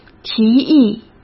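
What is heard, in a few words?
"提议", Mandarin Chinese; chinese-flashcards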